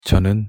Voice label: "저는" Korean; pronunciation

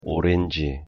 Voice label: "오렌지" Korean; pronunciation

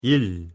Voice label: "일" Korean; pronunciation

